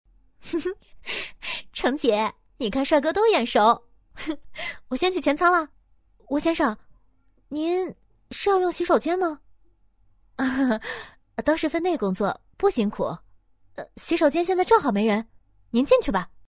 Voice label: 女 人物角色 空姐 俏皮; 素人自然

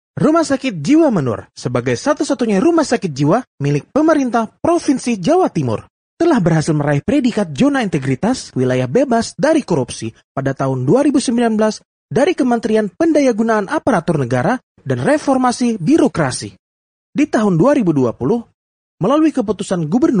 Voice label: 印尼语男声

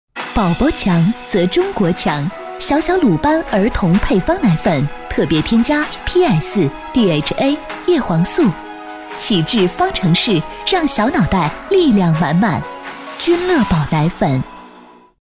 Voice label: 女, 品牌广告, 轻快; 女21-大气知性 高端大气; 君乐宝《小小鲁班篇》

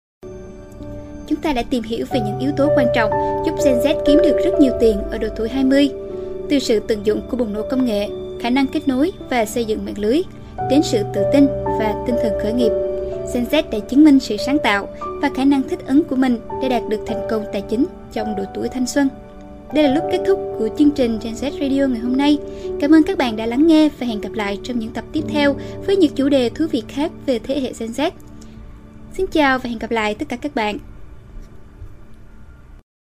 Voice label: 越南语女声; 女越南语

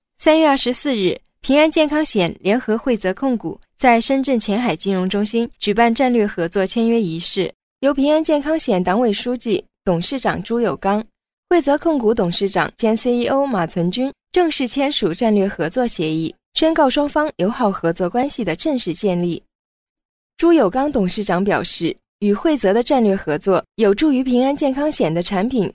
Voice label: 女, 新闻配音; 甜美亲切

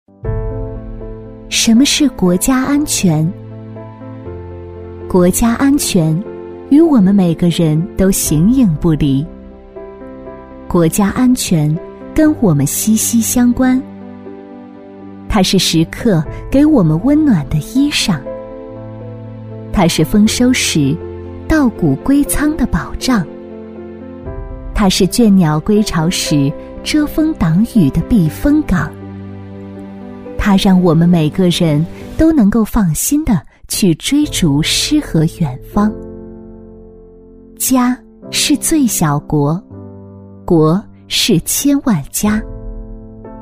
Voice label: 女 旁白配音 温和柔情; 国家安全; 女21-大气知性 年轻舒缓

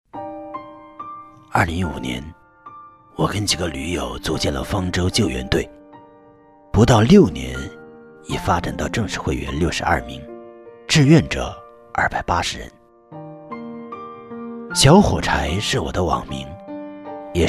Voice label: 男-人物自述-低沉回忆; 方舟救援队; 男2-浑厚大气; 舒缓抒情

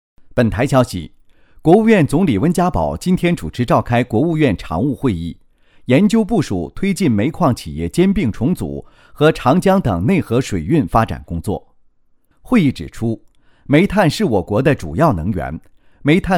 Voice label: 男-新闻配音 国务院常务会议; 男154-新闻配音【国务院常务会议】; 男154-成熟稳重; 沉稳大气

